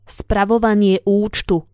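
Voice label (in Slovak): "spravovanie uctu", Slovak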